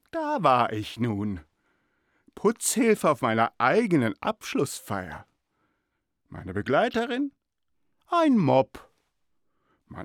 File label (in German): Story